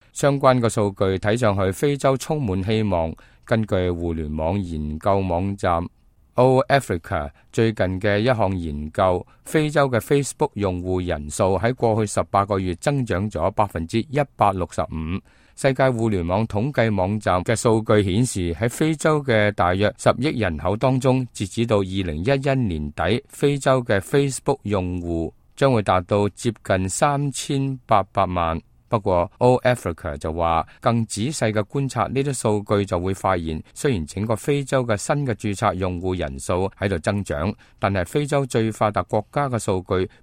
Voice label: cantonese